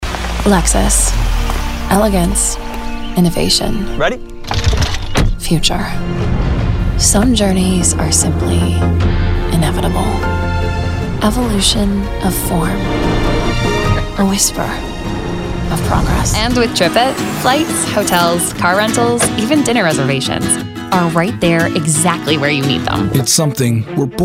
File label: Corporate Commercial